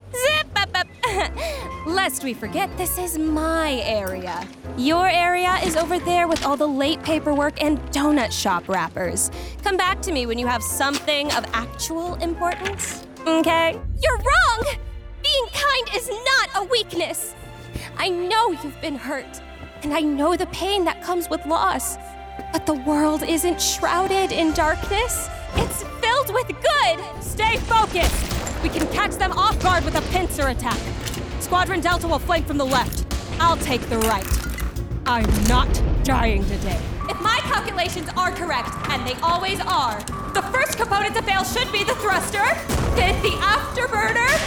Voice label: Character